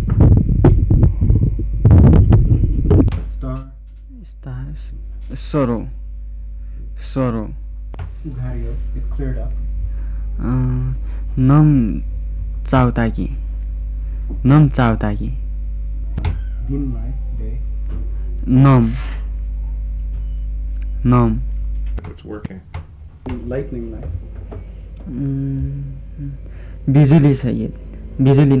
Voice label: Kham Comparative Word list

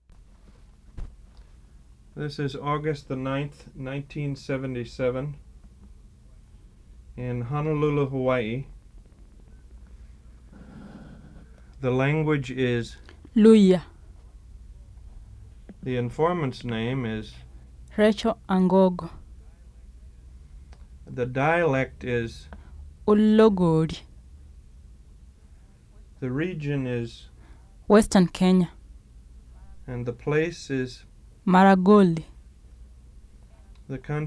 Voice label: Luyia

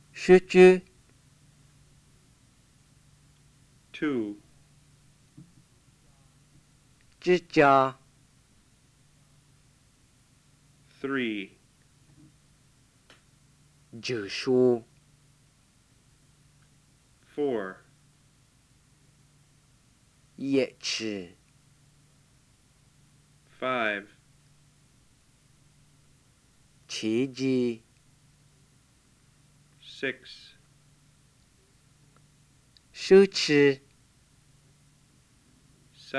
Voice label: Lisu

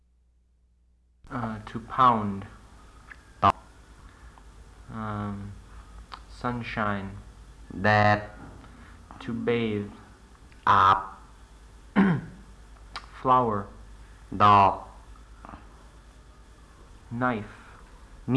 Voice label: Lao

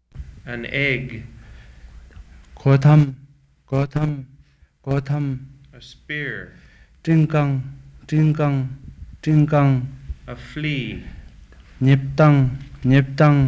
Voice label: Sharchokpa from Bhutan

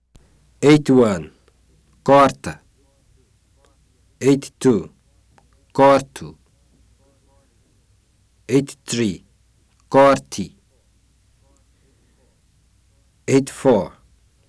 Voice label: Portuguese

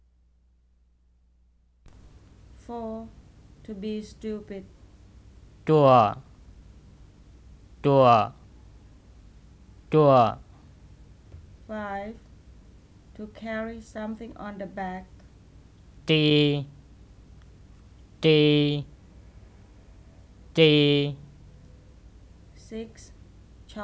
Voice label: White Miao Nan Province

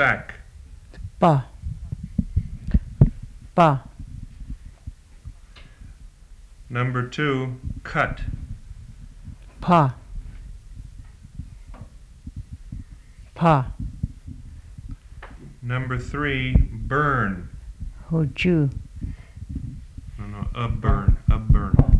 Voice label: Yuchi

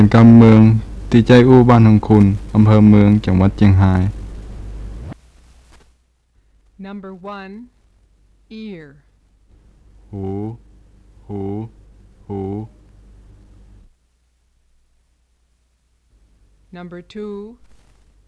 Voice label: Tai Dialects Nua Kham Muan

